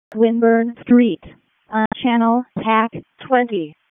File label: WC EMS ALERT, p25